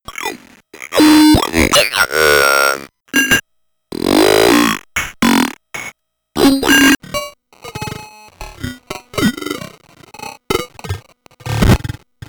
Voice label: alien voice collection